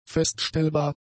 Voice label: "feststellbar", german; adjectives